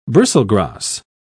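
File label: "bristlegrass", British English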